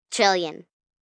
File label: Numbers Trillion, 1 child, girl counting